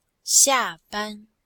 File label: "xia4 ban1", chinois; Cours de Chinois